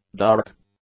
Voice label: "dark", English; adjectives

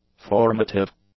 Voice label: "formative", English; adjectives

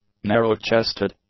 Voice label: "narrow-chested", English; adjectives

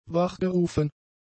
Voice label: "wachgerufen", German; adjectives

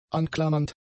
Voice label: "anklammernd", German; adjectives

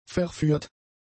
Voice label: "verführt", German; adjectives

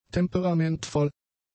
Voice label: "temperamentvoll", German; adjectives

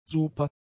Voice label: "super", German; adjectives

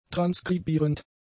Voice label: "transkribierend", German; adjectives

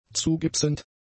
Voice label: "zugipsend", German; adjectives

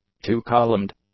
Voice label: "two-columned", English; adjectives

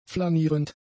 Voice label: "flanierend", German; adjectives

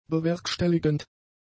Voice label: "bewerkstelligend", German; adjectives

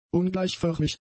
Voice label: "ungleichförmig", German; adjectives

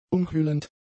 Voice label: "umhüllend", German; adjectives

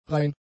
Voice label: "rein", German; adjectives

